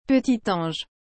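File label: "petit ange", French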